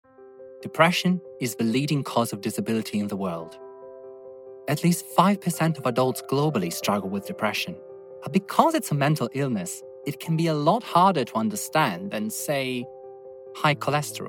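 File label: Italian, accented English, Narration; voice demos; GoLocalise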